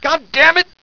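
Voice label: "damn", English; svencoop; sc royals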